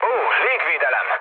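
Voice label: Hungarian damaged aa mood low, speech; War Thunder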